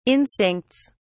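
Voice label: "instincts", British English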